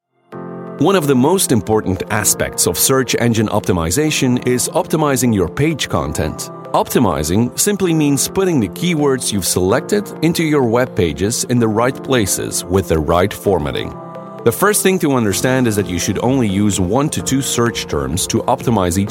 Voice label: midatlantic voice narration